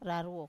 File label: "raruo", Wamesa